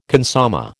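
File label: "consommé", American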